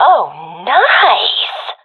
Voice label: cheer, professional fem; vo; Counter Strike Model Pack; CS:GO